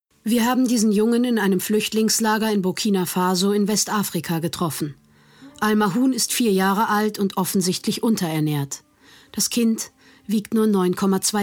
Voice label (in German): Voiceover; BR Sternstunden